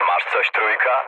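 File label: Polish chat; speech; War Thunder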